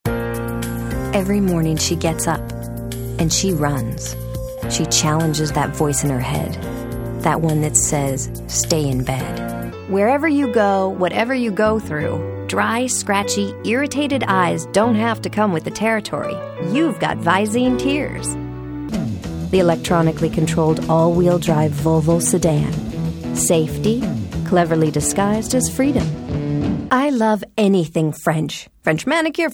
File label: commercial